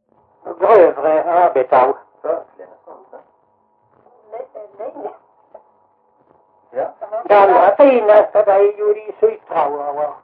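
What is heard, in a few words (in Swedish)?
Jämshög Blekinge, dialekter; Folkminnen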